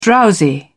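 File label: "drowsy", British English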